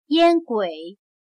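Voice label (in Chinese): "烟鬼", Chinese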